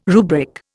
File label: "rubric", Indian